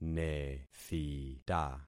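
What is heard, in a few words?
"nethita", concatenated syllables; Affixing experiment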